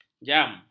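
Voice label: "jam", Malay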